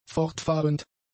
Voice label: "fortfahrend", German; adjectives